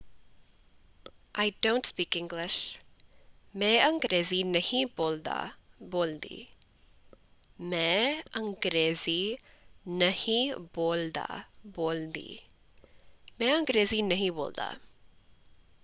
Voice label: Punjabi I dont speak English

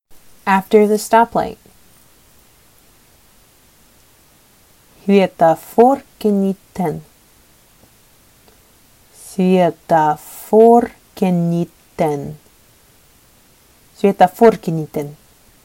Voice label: Sakha After the stoplight

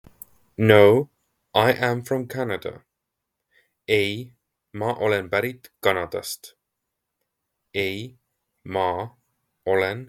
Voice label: No I am from Canada, Estonian; the talking estonian phrasebook

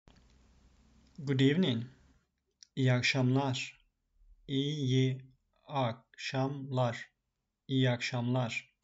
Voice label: good evening, Turkish; the talking turkish phrasebook

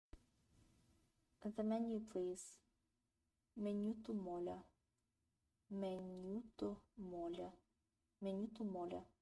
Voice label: BGN The menu please, Bulgarian; the talking bulgarian phrasebook

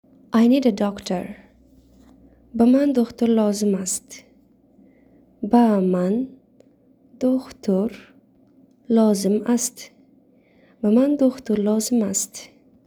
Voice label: "I need a Doctor", Tajik

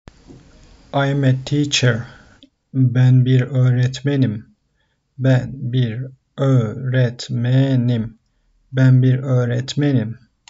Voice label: I am a teacher, turkish; the talking turkish phrasebook

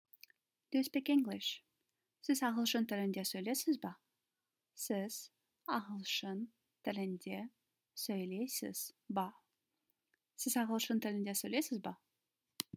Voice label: Do you speak English, kazakh

